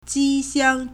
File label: "ji1 xiang1", Chinese